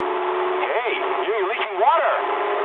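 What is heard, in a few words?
damaged water mood medium, speech English; War Thunder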